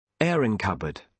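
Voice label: "airing cupboard", British English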